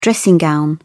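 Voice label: "dressing gown", British English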